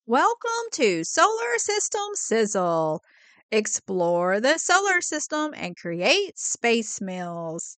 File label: title voice